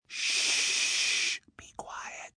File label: Ssh Be Quiet, Shhhh, Long, soft, Whispering